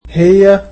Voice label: "she", egyptian